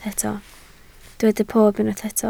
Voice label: "pob", Welsh